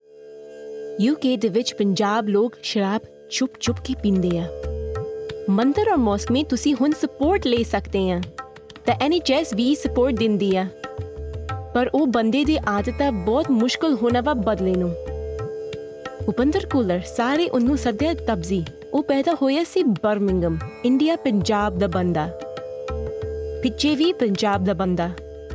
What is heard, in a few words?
Punjabi